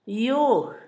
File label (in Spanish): yugo, Zapoteco, Asunción Ocotlan; Objetos; INALI